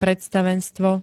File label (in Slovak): "predstavenstvo", Slovenské